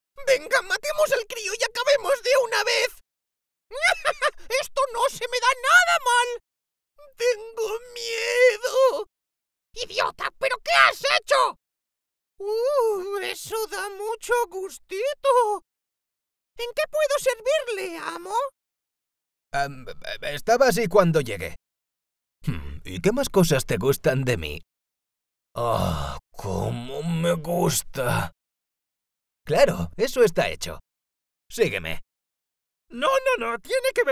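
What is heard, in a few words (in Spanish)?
doblaje España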